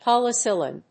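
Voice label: "polysilane", English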